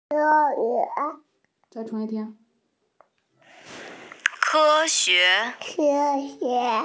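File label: "science", Mandarin, hearing-impaired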